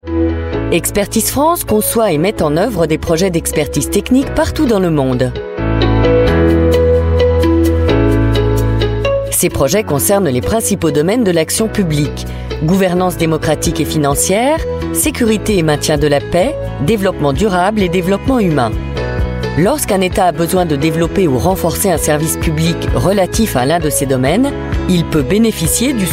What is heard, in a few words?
french voice over female narrator